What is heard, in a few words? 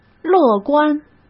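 "乐观", Mandarin Chinese; hsk; chinese-flashcards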